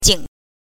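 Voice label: "jing" neutral tone, pinyin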